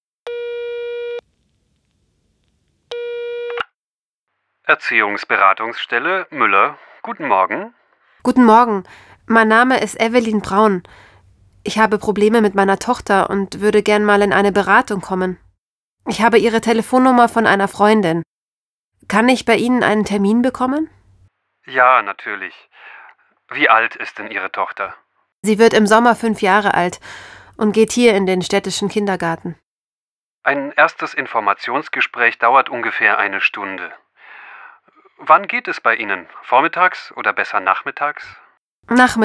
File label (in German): Telefongespräche Dialog